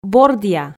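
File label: "бордъя", Komi, female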